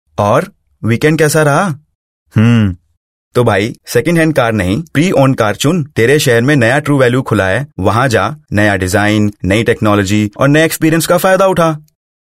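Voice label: Character hindi voice over, male voice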